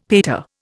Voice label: "pater", Indian; Dictionary

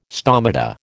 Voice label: "stomata", American

